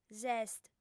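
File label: "s'est", female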